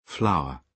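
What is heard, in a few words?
"flour", British English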